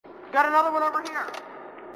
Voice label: got another one over here, marine sassy